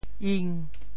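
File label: "ing5", Teochew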